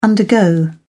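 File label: "undergo", English, British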